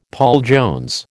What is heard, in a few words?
"Paul Jones", American